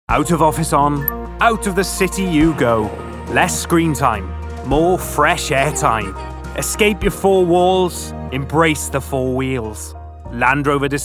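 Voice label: Welsh Commercial; voice demos; GoLocalise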